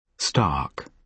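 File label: "stark" adjective, British English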